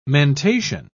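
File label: "mentation", British English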